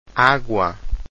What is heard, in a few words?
"agua", Portuguese